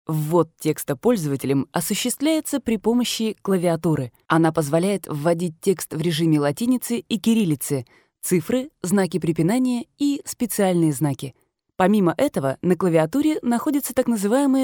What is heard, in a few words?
obuchenie rus